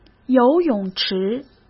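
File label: "游泳池", Mandarin Chinese; hsk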